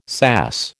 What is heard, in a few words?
"sass", American